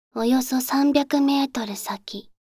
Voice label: およそ300メートル先, カーナビ 案内音声; カーナビ(で使えるかもしれない)案内音声

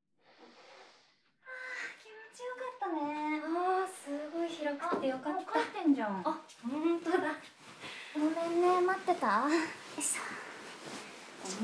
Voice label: 添い寝 シチュエーションボイス

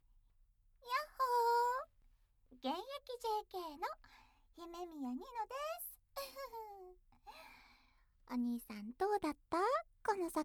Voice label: キャラクター フリートーク

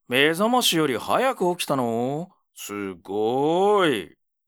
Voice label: mezamashi, ASMR

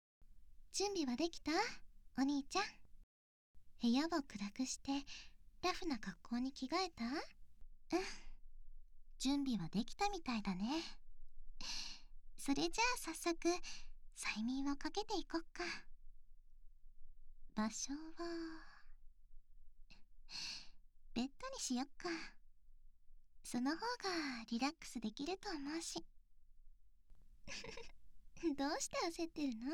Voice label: 催眠誘導

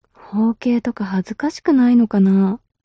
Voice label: mob女子台詞

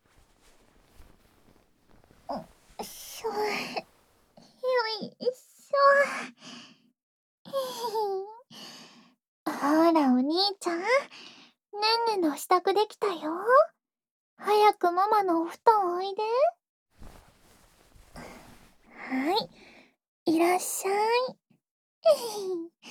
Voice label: 授乳添い寝・ささやき手コキ; 気持ちいいおねしょ

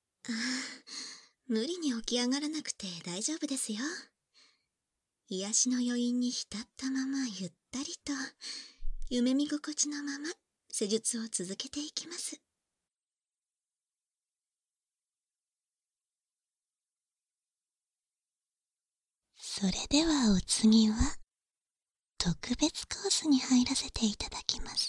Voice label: 囁き下半身マッサージ, バイノーラルマイク収録 効果音あり

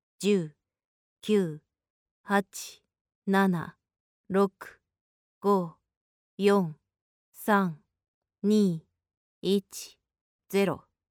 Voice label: 10から0へカウント

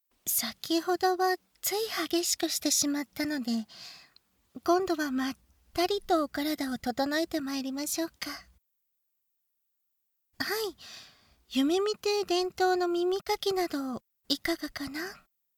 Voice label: 耳かき（囁き重視編集 効果音あり大きめ）, ASMR

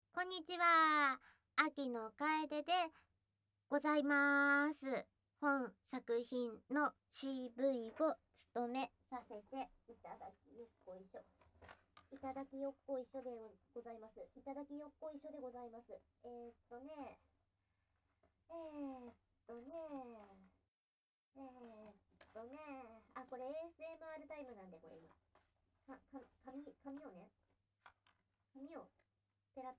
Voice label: フリートーク

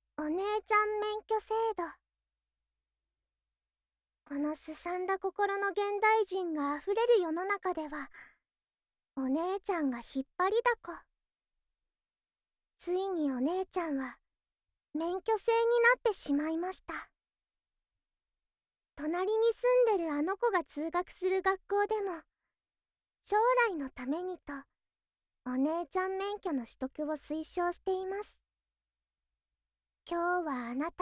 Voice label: ナレーション, ASMR

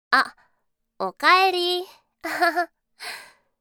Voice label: あ、お帰りー、あはは, システムボイス; Windows向けシステムボイス

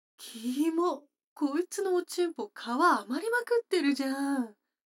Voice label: 女の子台詞

